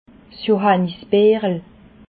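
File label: "johannisbeerel", Alsatian, Bas Rhin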